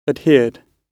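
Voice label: "adhered", British English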